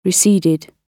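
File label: "receded", British English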